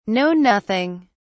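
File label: "know nothing", English, British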